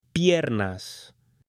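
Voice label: "piernas", Spanish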